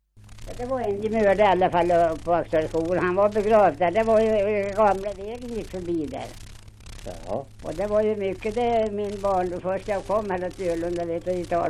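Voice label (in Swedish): Björnlunda Södermanland, dialekter; Folkminnen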